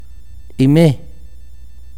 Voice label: breast, akateko